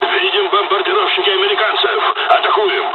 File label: dialogs russian; War Thunder